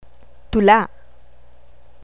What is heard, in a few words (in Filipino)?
"tula", Tagalog